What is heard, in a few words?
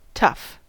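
"tough", American English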